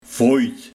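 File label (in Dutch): "Voijd", dialect; Vult de maag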